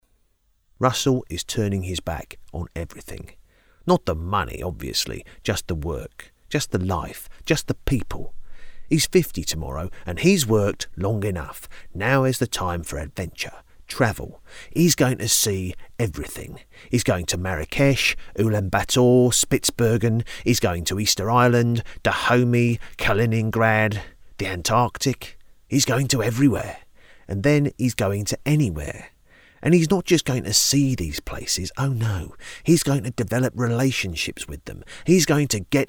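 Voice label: estuary, accents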